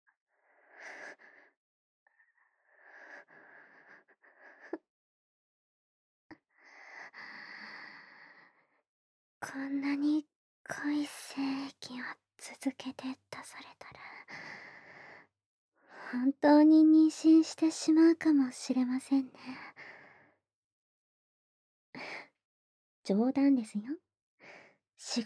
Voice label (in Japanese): 添い寝 ボイスオンリー, ASMR; 添い寝(エピローグ)